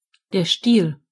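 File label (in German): "stem", German; gcompris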